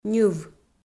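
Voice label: "ньыв", Komi, female; audio dict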